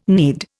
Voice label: "knead", Indian